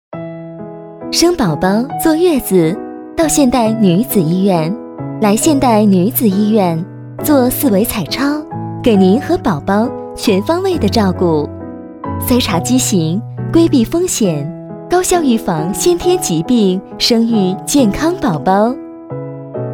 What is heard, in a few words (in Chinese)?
女国 广告 医院医药 女子医院 温柔; 现代女子医院